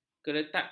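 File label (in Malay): "keretakan", Malay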